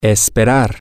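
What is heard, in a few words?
to Hope, Spanish, male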